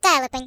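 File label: "Stupid", British English; Default uk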